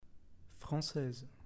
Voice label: "francaise", French